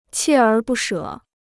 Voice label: "锲而不舍", Chinese